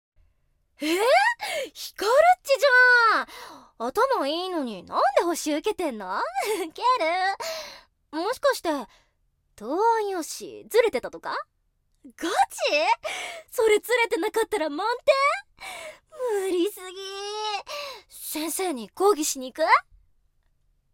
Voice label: ギャル 17歳; jtb-ent-voice

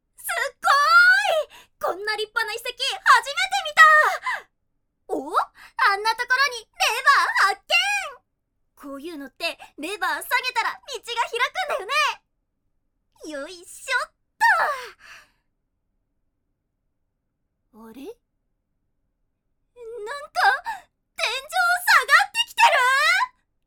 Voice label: 元気 天真爛漫; jtb-ent-voice

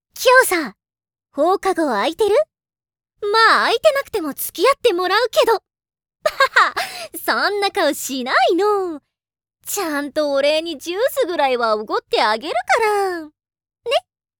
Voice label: 高校生 幼馴染 飾らない 17歳; jtb-ent-voice

